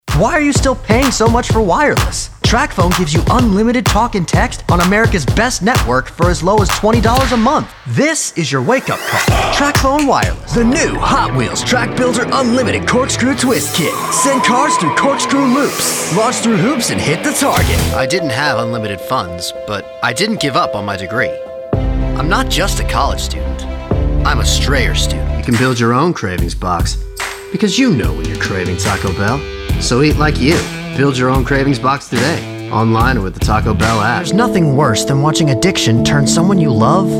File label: Commercial Demo